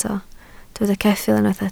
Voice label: "ceffyl", Welsh